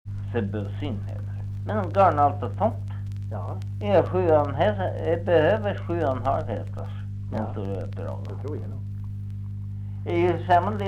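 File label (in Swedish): Norsjö Västerbotten, dialekter, Svenska sagor; Folkminnen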